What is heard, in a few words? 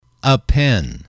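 "pen", English, male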